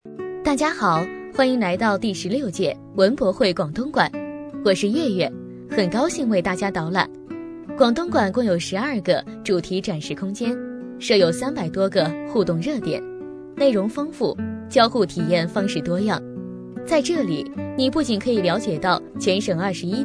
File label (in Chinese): 女声导游解说